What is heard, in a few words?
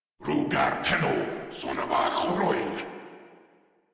Player Spotted, Grineer Male